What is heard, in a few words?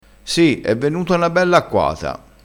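"acquata", Italian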